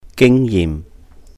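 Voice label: "gìng yihm 經驗", Cantonese; experience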